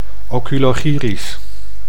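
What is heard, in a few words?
"oculogyrisch", Dutch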